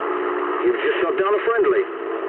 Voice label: eng player killed ally mood low, speech eng